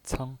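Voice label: "ceng", Mandarin Chinese, neutral tone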